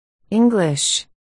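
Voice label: "english", spoken word, articulation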